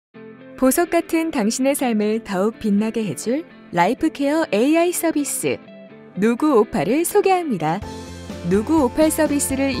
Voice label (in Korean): 디바이스 연결 방법 편안하고 신뢰감있는 30대; SK텔레콤; NUGU opal; 누구 오팔